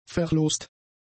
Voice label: "verlost", German; adjectives